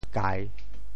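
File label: "kai3", Teochew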